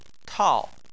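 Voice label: "tao", Chinese, fourth tone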